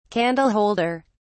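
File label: "candle holder", British English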